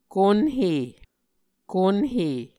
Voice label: "konhe", Muscogee